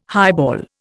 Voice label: "highball", Indian

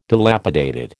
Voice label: "dilapidated", American

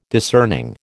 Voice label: "discerning", American

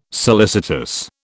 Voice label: "solicitous", British

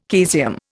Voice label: "caesium", Indian

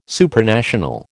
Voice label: "Supranational", American

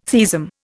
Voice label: "theism", Indian

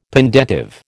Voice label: "pendentive", American

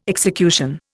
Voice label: "execution", Indian

